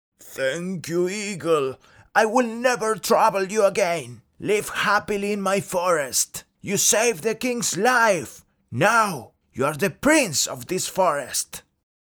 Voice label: English Character